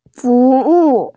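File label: "fu wu", Mandarin Chinese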